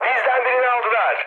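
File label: Turkish aircraft destroyed, mood high, speech; War Thunder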